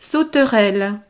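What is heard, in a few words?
"sauterelle", French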